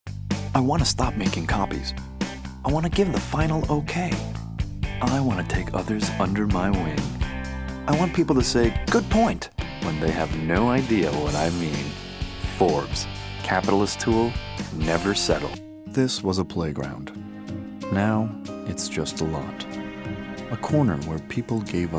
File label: Commercial